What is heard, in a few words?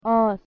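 "aas", Persian, female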